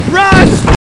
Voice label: "run", marines